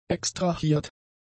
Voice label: "extrahiert", German; adjectives